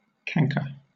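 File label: "canker" English, British accent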